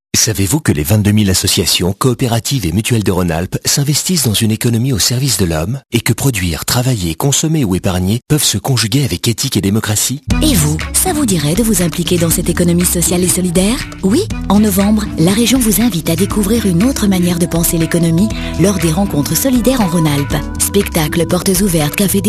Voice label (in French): radio pub spot